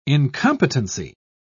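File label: "incompetency", British English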